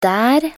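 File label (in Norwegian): "der", Norwegian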